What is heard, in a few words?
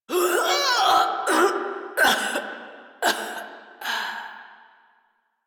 Death, Female; Railjack Crew; Steel Meridian